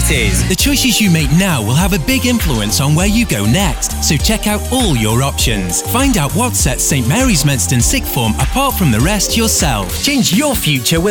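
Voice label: College, British Male Voice Over; College Showreel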